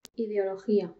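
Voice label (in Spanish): "ideología", Spanish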